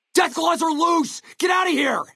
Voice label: male adult dialogue greeting; Fnv

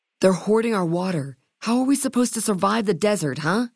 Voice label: female adult greeting; FNV

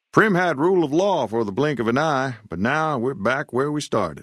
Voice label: dialogue greeting; Primm

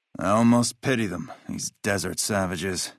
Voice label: male adult greeting; FNV

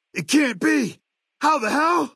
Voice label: male adult; Fnv

